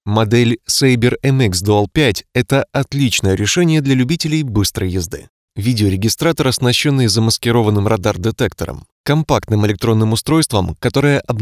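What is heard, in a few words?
Russian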